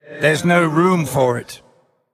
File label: Undead Emote; Godfrey